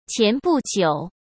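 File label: "前不久", Mandarin Chinese; chinese-flashcards; hsk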